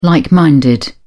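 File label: "like-minded", English, British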